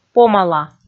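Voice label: "помала", Komi, female; kpv audio dict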